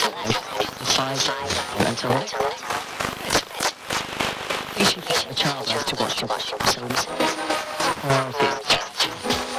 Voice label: garble, Vocal Loops; Transmission-X